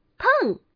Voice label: peng, man